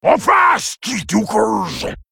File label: Male Hozen